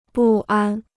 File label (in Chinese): "不安", Chinese